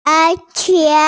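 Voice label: "er3qie3", Mandarin Chinese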